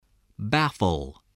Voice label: "baffle", english